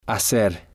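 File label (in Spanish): "hacer", spanish, male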